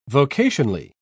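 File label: "vocationally", British English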